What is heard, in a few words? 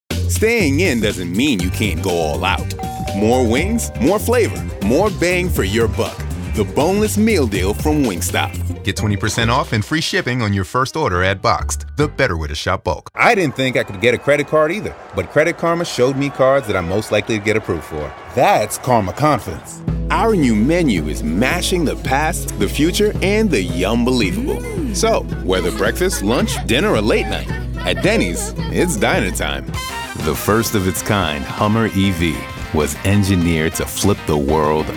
Commercial; voice over